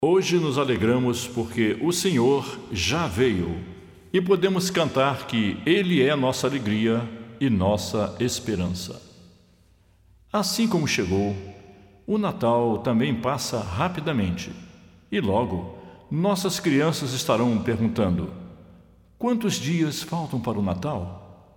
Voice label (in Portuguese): Narração